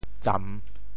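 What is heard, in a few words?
"tsam3", Teochew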